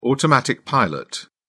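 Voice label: "automatic pilot", English, British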